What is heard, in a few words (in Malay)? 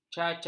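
"cacat", Malay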